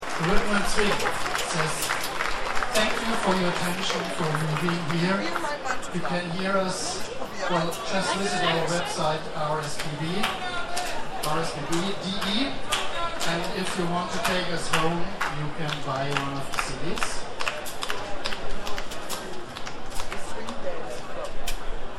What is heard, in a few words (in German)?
Ansage